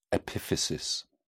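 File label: "epiphysis", British English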